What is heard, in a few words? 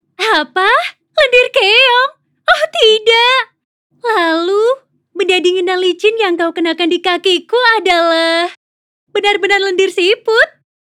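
character Indonesian; The light tone she possesses brightens up the listeners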